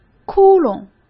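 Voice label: "窟窿", Mandarin Chinese; chinese-flashcards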